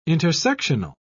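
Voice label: "intersectional", British English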